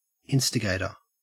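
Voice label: "instigator", Australian English; pronunciations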